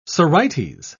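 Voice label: "sorites", British English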